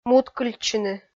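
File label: "муткыльтчыны", Komi, female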